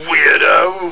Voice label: male taunt; starsiege